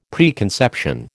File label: "preconception", American